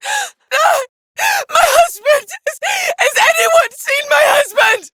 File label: Villagers Fem en